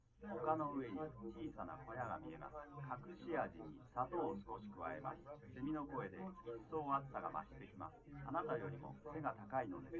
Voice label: male liverec; SiSEC10; underdetermined